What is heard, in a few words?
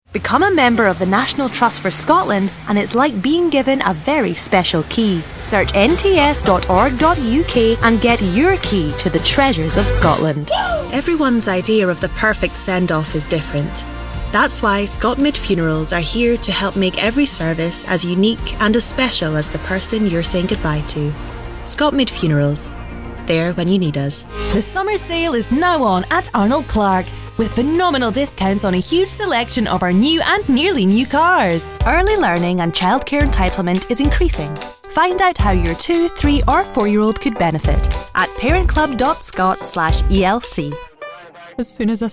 Scottish Commercial